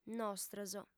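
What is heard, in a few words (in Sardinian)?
"nostros", female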